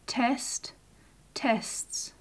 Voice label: "test" "tests", English